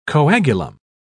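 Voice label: "coagulum", English, British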